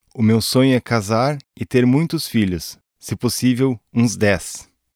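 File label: Portuguese male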